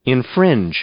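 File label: "infringe", English, male; verb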